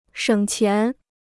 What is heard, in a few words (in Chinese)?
"省钱", Chinese